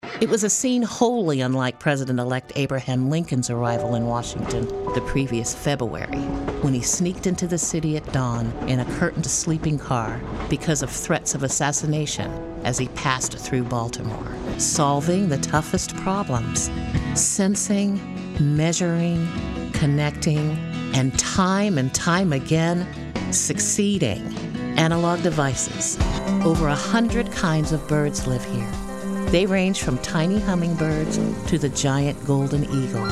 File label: narration